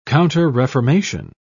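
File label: "counterreformation", British English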